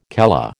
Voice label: "calla", American